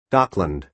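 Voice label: "dockland", American English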